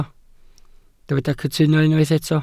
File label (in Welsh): "cytuno", Welsh